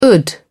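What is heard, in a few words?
"d ration", British English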